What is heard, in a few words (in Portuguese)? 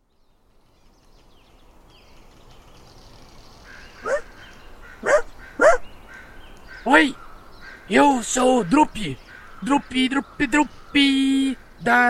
Exemplo Spot produzido; RPG Next